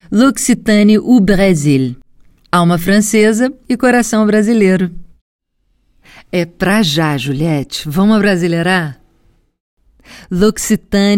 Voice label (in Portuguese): voz da marca; loccitane brasil